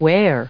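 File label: "where", US English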